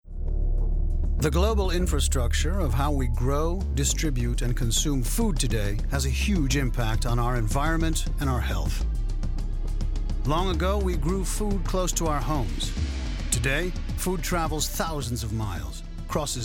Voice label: documentary; SUD